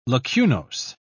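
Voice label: "lacunose", British English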